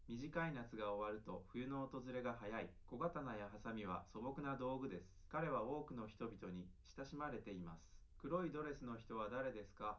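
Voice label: male, live recording, 250 ms, 1 m; SiSEC11; underdetermined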